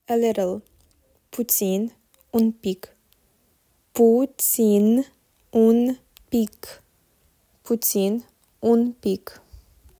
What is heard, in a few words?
A little, romanian; talking romanian phrasebook